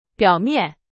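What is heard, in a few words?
Chinese; hsk3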